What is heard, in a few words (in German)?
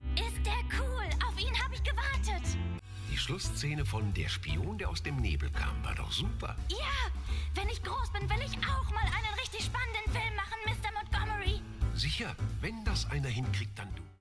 synchrondemo kleiner junge